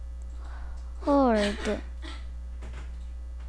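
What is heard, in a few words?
"hold", spoken word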